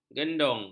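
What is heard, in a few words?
"gendong", Malay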